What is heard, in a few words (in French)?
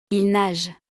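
"il nage", French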